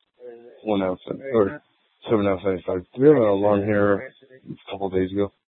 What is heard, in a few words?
Superstition Dispatch, Mesa PD